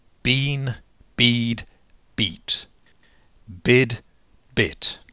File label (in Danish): engelsk bean bead; [bi:n bi:d biˑt – bɪˑd bɪt]